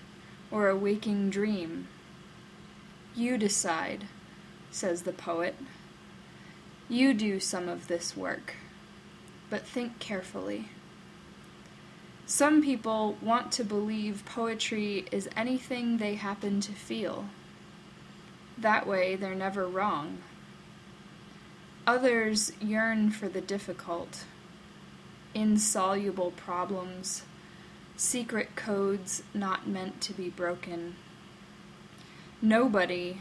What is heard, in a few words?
Poem